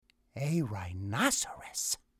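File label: English RHINOCEROS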